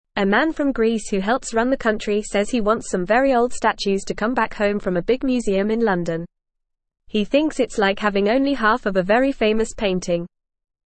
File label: English Beginner FAST Reading; Greece wants old statues back from London museum; English Newsroom